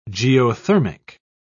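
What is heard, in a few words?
"geothermic", British English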